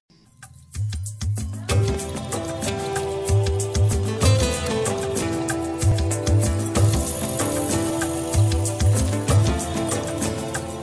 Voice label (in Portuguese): Romântica Foi Bom Te Conhecer